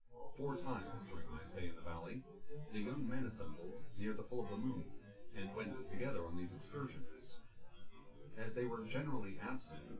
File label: male synth conv 1m; SASSEC07